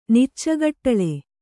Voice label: "ನಿಚ್ಚಗಟ್ಟಳೆ", Kannada

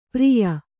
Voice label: "ಪಾರಿಯ", kannada

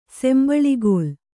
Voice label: "ಸಂಬಳಿಗೋಲು", Kannada

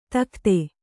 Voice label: "ದಗದ", Kannada

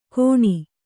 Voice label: "ಗೋಣಿ", Kannada

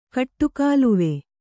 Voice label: "ಕಟ್ಟುಕಾಲುವೆ", kannada

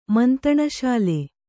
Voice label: "ಮಂತಣಶಾಲೆ", Kannada

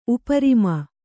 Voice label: "ಉಪರಿಮ", Kannada; uparima; noun; the region above (something).

